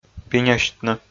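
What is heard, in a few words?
"пиньӧсьтны", Komi, male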